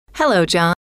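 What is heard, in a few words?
"hello", female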